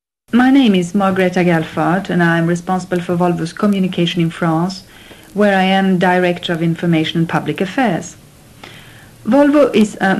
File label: SWEDEN, Accents of English